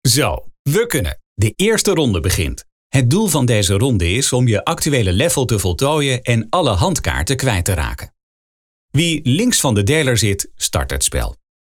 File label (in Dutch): voiceover consumenten speluitleg; Ravensburger